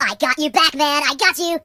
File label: "Revenge", Surfer voice; hedgewars